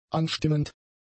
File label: "anstimmend", German; adjectives